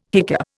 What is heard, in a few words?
"hiccup", Indian